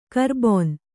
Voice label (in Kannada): "ಕರಬೋನ", kannada